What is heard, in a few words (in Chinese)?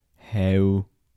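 偷-台城-男, 台山话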